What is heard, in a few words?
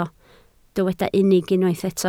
"unig", Welsh